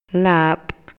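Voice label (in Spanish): Maya, Yucatán, male; CANTIDAD; INALI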